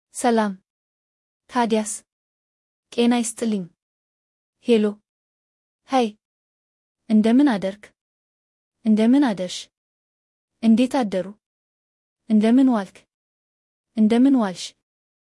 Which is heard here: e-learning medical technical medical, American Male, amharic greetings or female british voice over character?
amharic greetings